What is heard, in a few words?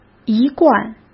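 "一贯", Mandarin Chinese; chinese flashcards; hsk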